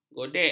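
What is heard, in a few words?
"godek", Malay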